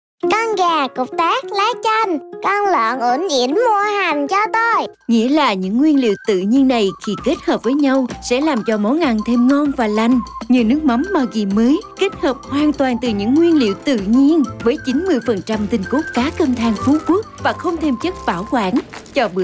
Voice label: Commercial